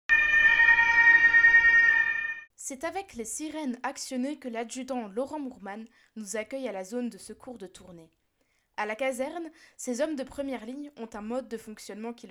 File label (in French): Reportage radio, journalisme